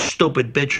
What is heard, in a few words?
stupid bitch male; Voice